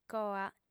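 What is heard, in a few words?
"coa", female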